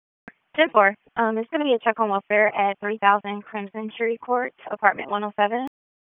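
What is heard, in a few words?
Police Dispatch; Raleigh Police Southwest District Disp; wake p25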